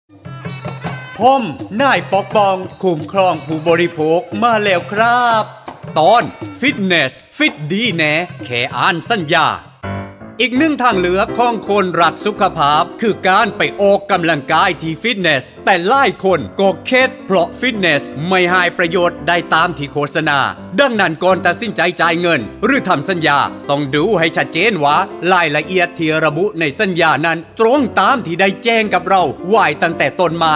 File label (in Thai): สปอตวิทยุ ภาคใต้; สื่อประชาสัมพันธ์; สคบ.; สำนักงานคณะกรรมการคุ้มครองผู้บริโภค